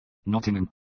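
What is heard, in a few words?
"Nottingham", English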